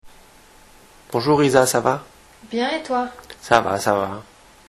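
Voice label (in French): "bonjour", French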